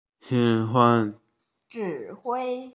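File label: "conduct", Mandarin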